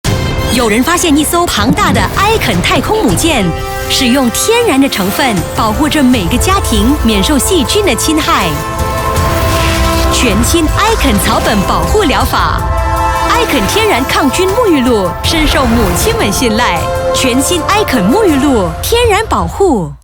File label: Mandarin; Aiken Naturally Antibacterial Shower; Female